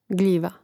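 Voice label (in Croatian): "gljiva", hrvatski; mreznik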